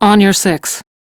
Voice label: Crew Follow, Steel Meridian Female; Dialog; en; Warframe; RailjackCrew